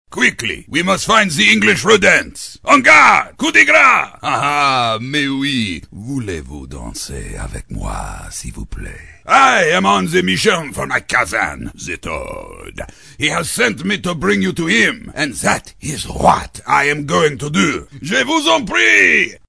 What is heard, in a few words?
French Swordsman; animation